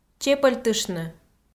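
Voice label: "чепӧльтыштны", Komi, female; kpv audio dict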